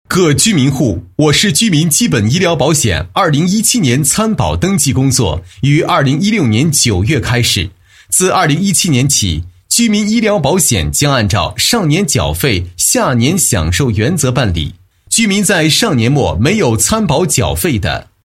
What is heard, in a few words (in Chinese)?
男 公告; 居民医疗保险